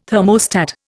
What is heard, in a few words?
"thermostat", Indian